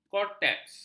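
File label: "korteks", Malay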